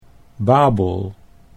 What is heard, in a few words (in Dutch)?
"Babel", Dutch